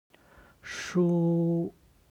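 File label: "shu", Chinese; 书; 本; HSK Level One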